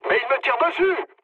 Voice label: aircraft damaged mood low, speech fr; War Thunder

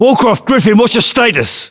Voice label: voiceovers UK

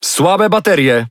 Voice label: Speech Alarms Sub Battery Low, PL; World Of Warships

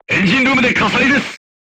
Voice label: voice message fire in tank, crew tanks japanese

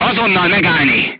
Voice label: voice message short stop, crew tanks hungarian

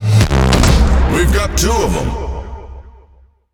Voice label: Speech Double Kill, EN; World Of Warships

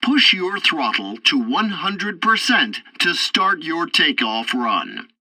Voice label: tutorial takeoff preparation, english; War Thunder

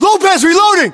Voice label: US inform reloading, battlechatter, english

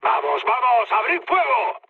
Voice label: attack position, mood med, speech; War Thunder

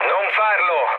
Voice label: bomb failure mood low, speech it; War Thunder

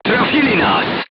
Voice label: voice message player tank hit, polish

